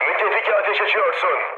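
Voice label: Turkish player friendly fire, mood low, speech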